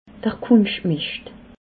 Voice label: "kunschtmischt", alsace

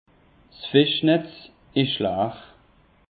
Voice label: "fischnetz", alsace; peche

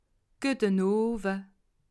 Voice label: "guete nowe", alsace

